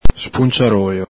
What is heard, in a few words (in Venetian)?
"spunciarolo", Veneto; spunciaróƚo; ENG: punch; ITA: punteruolo; el Galepin